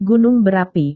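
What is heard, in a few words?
"gunung berapi", Indonesian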